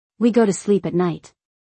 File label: We go to sleep at night., English; Time and Routine